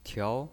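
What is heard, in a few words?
"tiao", pinyin voice, second tone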